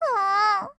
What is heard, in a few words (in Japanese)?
LOWMOOD JP